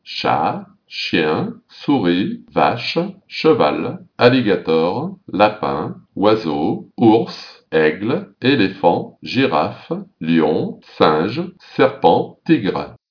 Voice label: french; animals

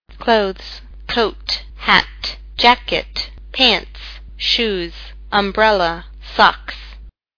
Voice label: english; clothes

